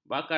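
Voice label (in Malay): "bakarat", Malay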